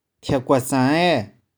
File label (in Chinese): "thih4kueh4sang1ghae1", 玉環